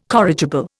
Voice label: "corrigible", Indian